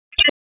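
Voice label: Police Southwest District Tac, radio; Raleigh; wake; p25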